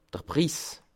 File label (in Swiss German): "priss", Alsace